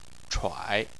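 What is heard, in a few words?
"chuai" third tone, Chinese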